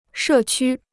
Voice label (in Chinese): "社区", Chinese